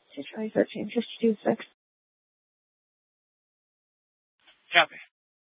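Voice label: police dispatch radio, scanner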